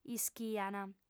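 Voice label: "ischiant", Sardinian, female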